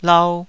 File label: "lau2", taiwanese syllables